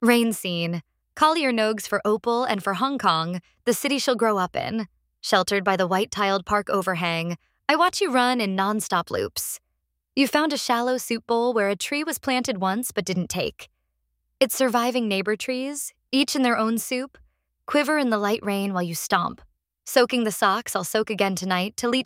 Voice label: poem; Poem appreciation - Rain Scene (Collier Nogues)